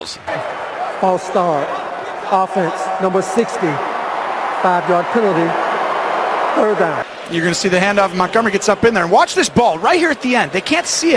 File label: English football reporter